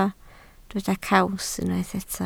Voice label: "caws", Welsh